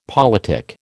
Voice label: "politic", American